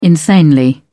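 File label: "insanely", British English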